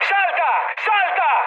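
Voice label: Italian bailout mood high, speech; War Thunder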